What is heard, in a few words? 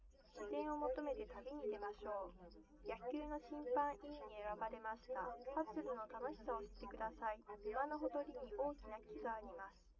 female liverec 130ms 5cm; SiSEC10; underdetermined